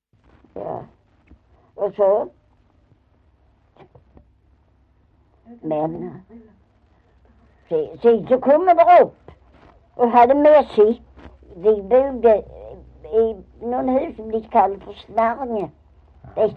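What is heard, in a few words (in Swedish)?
Maglarp Skåne, Svenska sagor dialekter; Folkminnen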